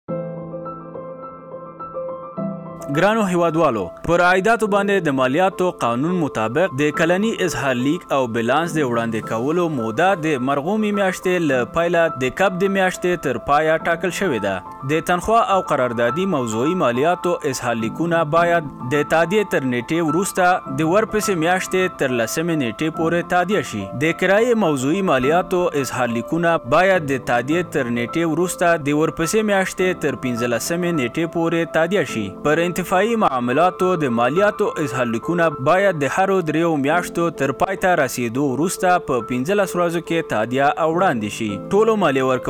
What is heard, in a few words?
Pashto; ARD